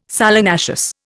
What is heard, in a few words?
"solanaceous", Indian